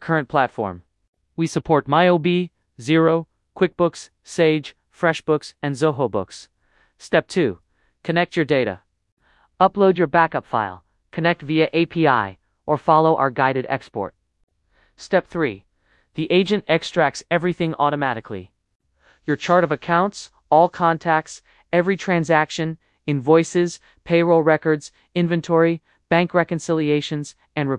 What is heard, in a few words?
migration voiceover